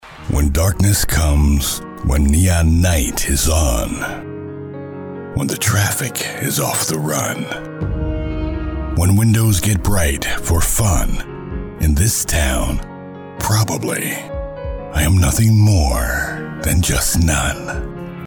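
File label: American English, male, narration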